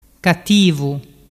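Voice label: "cattivu", Vernazzese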